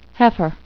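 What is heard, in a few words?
"heifer", English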